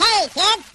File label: "hey kids", voice